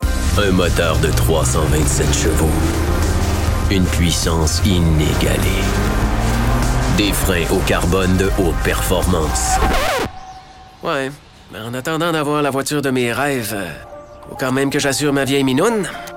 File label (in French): publicite francais quebecois naturel annonceur personnage humour; belair